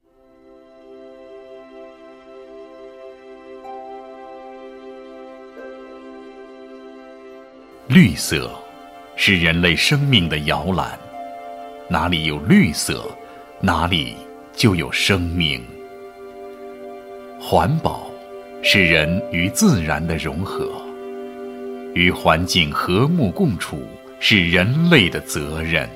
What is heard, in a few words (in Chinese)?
男 汇报 舒缓 讲述 温情 环保